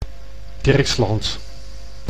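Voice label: "Dirksland", Dutch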